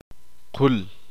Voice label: uspanteko cuello

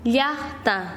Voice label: "pueblo", Quechua Chanka

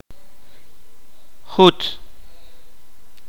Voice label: "gusano", Kaqchikel

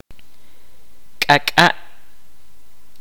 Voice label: "nuevo", Kaqchikel

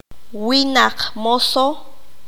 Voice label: "ladino", qanjobal

